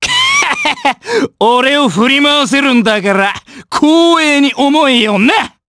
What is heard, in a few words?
vox get jp; Bernheim; kingsraid